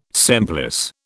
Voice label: "semplice", British

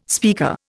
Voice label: "speaker", Indian

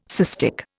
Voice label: "cystic", Indian

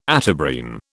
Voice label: "Atabrine", British

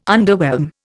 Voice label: "underwhelm", Indian

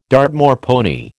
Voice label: "Dartmoor pony", American

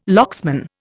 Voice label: "locksman", Indian

